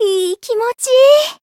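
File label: healed, Japanese; bivette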